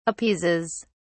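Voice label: "appeases", British English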